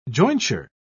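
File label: "jointure", British English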